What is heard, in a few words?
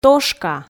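"тошка", Komi, female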